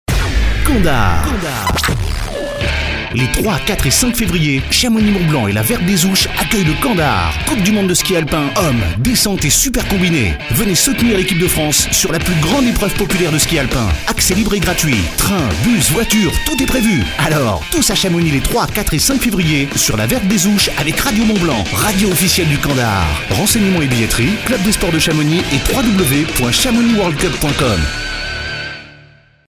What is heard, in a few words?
spot